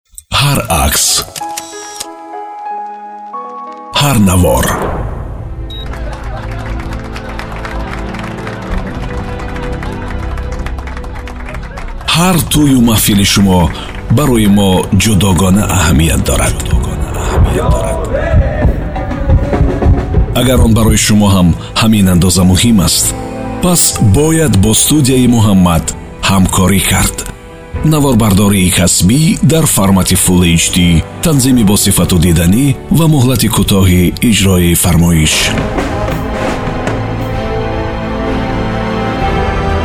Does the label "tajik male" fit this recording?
Yes